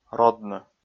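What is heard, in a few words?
"родны", Komi, male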